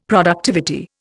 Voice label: "productivity", Indian; Dictionary